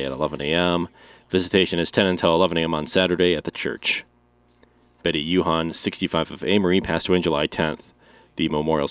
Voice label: Funeral Announcements